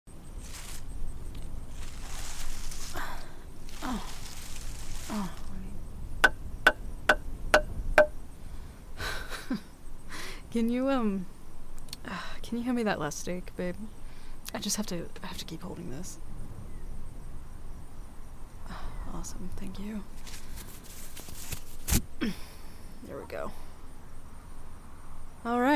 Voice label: asmr sleep aid falling asleep on your girlfriend s chest cuddles forest ambie; forest ambience